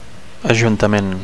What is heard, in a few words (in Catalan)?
"ajuntament", Catalan; 1. (m) town hall; city hall